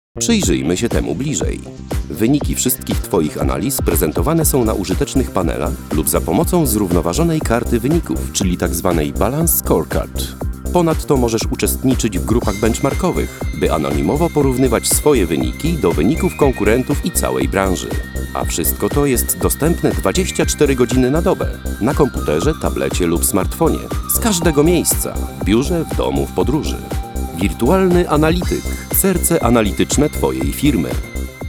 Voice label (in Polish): prezentacja handlowa